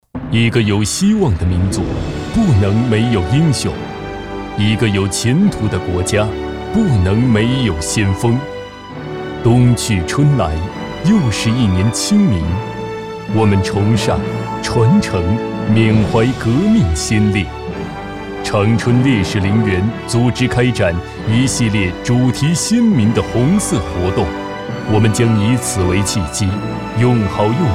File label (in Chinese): 男 专题 大气; 烈士陵园